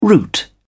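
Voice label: "route", British English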